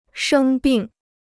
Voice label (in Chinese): "生病", Chinese